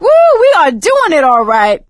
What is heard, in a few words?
hurrah, survivor voice; source engine; left 4 dead 2; producer